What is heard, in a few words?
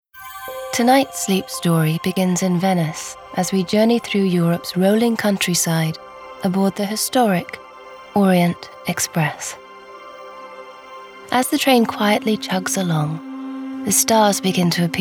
Calm